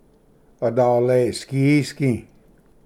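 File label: "adalesgiisgi", Cherokee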